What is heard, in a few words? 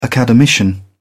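"academician", English, British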